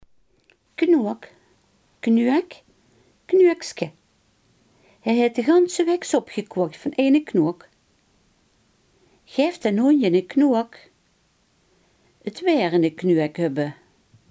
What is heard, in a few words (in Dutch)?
"knook", Limburgs